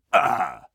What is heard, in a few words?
pain, combine soldier; hl2